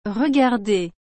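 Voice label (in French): "regarder", French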